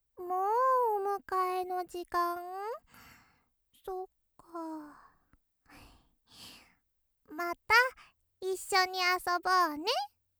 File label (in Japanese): ロリ またね